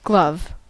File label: "glove", us